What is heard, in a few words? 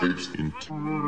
robot blurp